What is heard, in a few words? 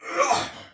Attack Soldier Male; Dialog; Barks; Battle; Sinecure